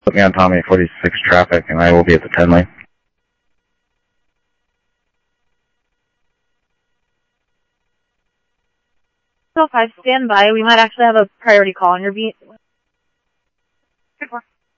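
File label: Sheriff Lake Patrol, scanner audio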